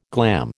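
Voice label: "glam", American English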